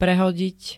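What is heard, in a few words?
"prehodiť", Slovak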